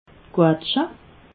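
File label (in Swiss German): "quatschel", Alsatian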